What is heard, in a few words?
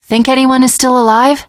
teengirl, voice; Left 4 Dead 2